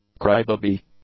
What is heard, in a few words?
"crybaby", English; nouns